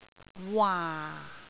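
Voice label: "waa4", Cantonese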